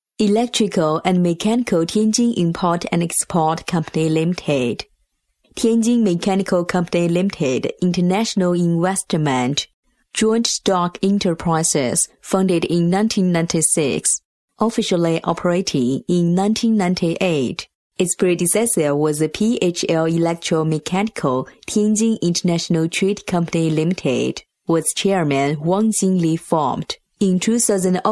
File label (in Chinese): 英女 成熟磁性英文; 天津滨海新区